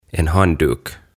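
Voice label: "En Handduk" Swedish; Pyyhe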